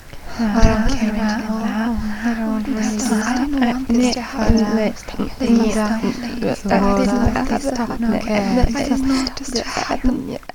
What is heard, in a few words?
Indistinct whispering